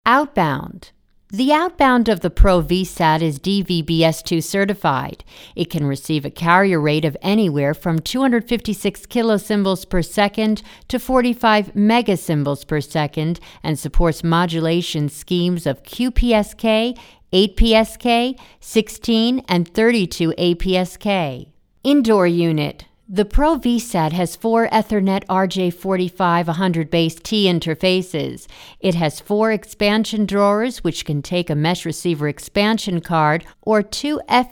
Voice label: english american ivr